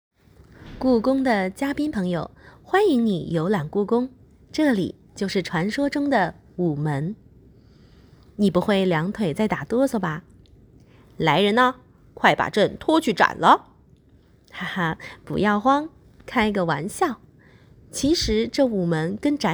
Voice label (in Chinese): 导游